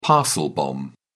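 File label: "parcel bomb", British English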